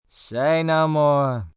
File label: Say No More, Reaction, negative, male; Exclamation; Voice Box